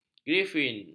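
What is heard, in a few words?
"grifin", Malay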